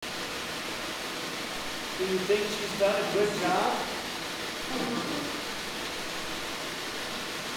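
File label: uh huh, EVP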